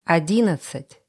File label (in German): russische zahl 20; russische zahl 11; Zahlen auf Russisch